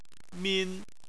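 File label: "min", Chinese, first tone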